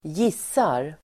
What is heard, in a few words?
"gissar", Swedish